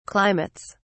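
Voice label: "climates", British English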